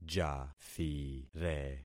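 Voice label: "jathire", concatenated syllables; Affixing experiment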